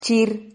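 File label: "chir", Spanish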